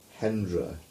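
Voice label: "Hendra", English; place names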